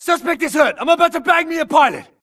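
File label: grunt detect Player Low Health; imc; Titanfall 2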